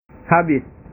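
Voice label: "habis", Indonesian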